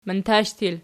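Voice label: "mantash" plural, Archi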